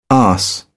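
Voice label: "arse", British English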